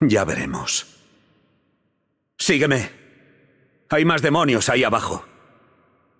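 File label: Spanish intro; es ES; VO; Yunara; bloom minigame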